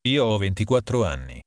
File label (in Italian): "35 anni", Italian